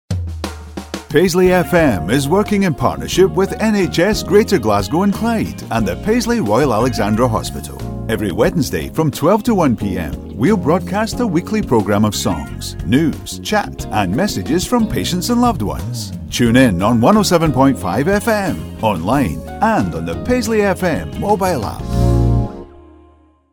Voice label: Promo with Bed; RAHRadio